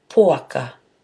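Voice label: "poaka", Māori; pig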